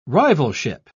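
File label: "rivalship", British English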